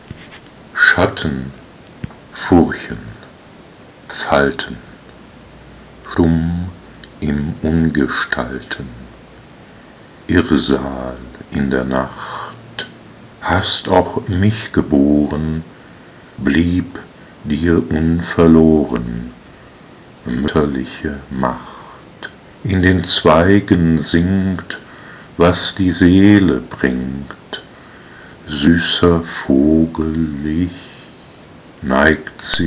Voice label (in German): Die weibliche Stimme